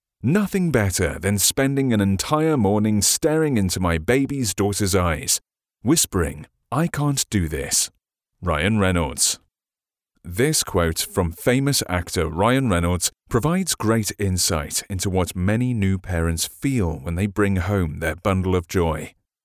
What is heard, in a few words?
English voice over